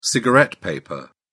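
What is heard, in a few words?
"cigarette paper", British English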